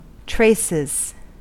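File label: "traces", US English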